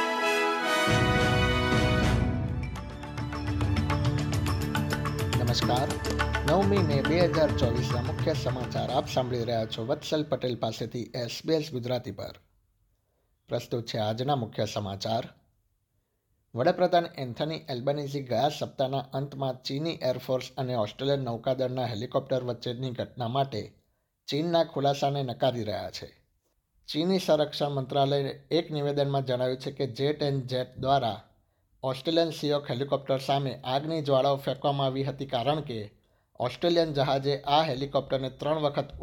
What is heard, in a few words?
gujarati newsflash; sbs